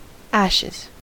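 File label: "ashes" English, US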